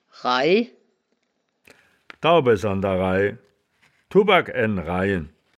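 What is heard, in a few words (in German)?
"raih", wittlicher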